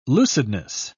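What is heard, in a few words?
"lucidness", British English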